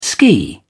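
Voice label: "ski", British English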